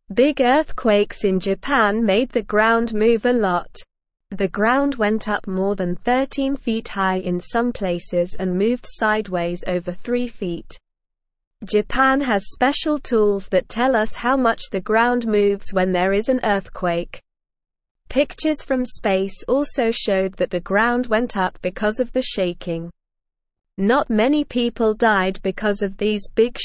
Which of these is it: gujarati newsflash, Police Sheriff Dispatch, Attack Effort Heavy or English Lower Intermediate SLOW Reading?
English Lower Intermediate SLOW Reading